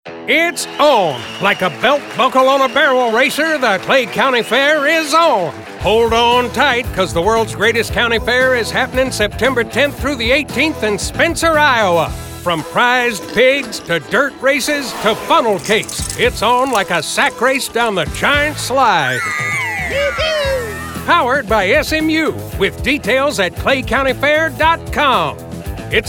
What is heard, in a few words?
radio; BeltBuckle